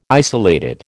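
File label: "isolated", American English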